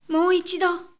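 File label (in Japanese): 女性 mou ichido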